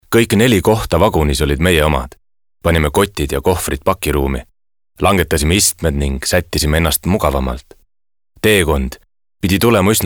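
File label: Estonian male example Casual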